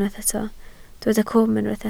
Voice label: "cwm", Welsh

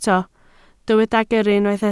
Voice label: "gyrru", Welsh

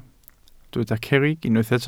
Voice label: "cerrig", Welsh

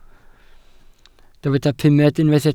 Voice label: "pumed", welsh

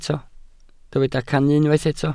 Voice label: "cannu", Welsh

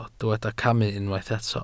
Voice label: "camu", welsh

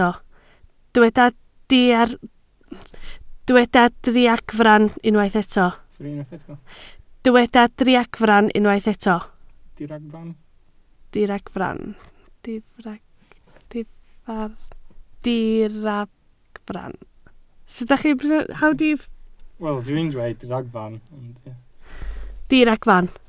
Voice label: "diragfarn", Welsh

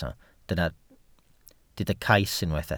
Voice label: "cais", welsh

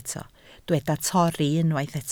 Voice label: "torri", Welsh

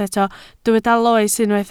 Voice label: "loes", Welsh

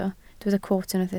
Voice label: "coot", Welsh

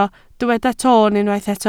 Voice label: "toon", Welsh

